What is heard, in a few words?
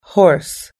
"horse", us english